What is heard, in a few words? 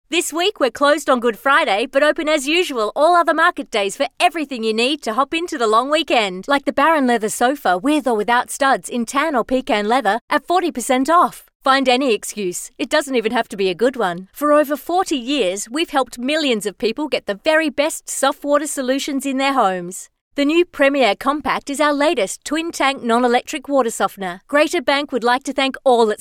Hard Sell